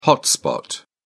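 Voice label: "hotspot", British English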